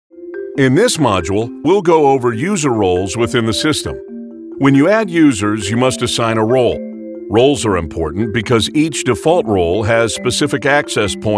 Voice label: Voice Talent eLearning

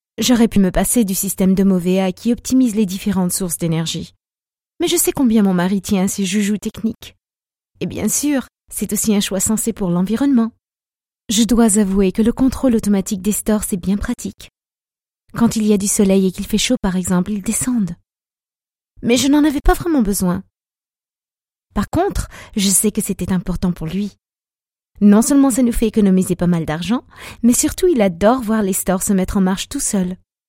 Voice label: French

